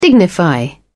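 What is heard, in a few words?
"dignify", en, British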